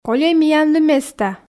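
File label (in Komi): кольӧй миянлы места, Komi, female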